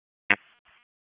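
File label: Sheriff's Office Dispatch 1, p25; wake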